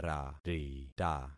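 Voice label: "rarita", concatenated syllables; Affixing experiment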